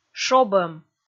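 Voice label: "шобӧм", Komi, female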